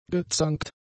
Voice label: "gezankt", German; adjectives

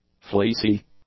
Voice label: "fleecy", english; adjectives

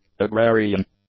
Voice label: "agrarian", English; adjectives

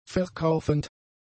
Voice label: "verkaufend", German; adjectives

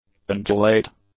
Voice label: "undulate", English; adjectives

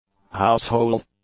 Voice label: "household", English; nouns

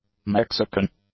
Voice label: "Mexican", english; adjectives

